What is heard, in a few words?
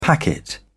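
"packet", British English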